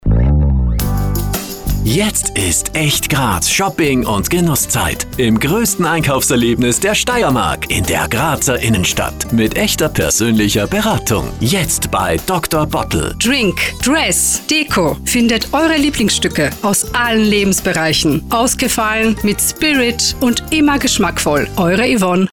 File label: spot; echtgraz; drbottle